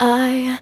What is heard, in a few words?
"ay", voice